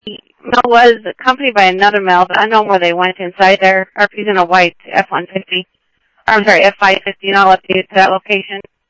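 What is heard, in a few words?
Simulcast police department, scanner audio trunk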